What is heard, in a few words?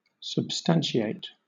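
"substantiate" English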